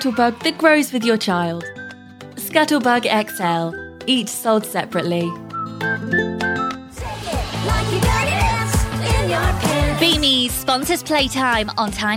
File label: Kids, british female voiceover